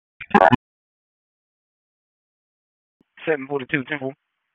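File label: Sheriff's Office Dispatch 1, p25; wake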